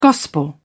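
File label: "gospel", English, British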